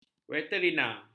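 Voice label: "veterinar", Malay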